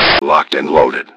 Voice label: grunt; svencoop; angel